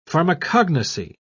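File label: "pharmacognosy", English, British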